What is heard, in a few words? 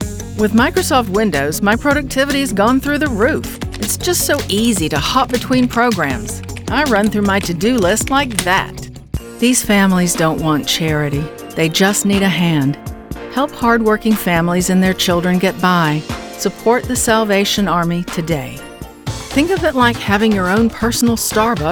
commercial spokesperson